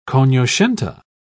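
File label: "cognoscente", British English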